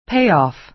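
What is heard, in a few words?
"payoff", American English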